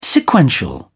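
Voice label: "sequential", British English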